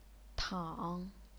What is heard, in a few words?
"tang3", chinese pinyin